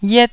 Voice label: "yiet1", Taiwanese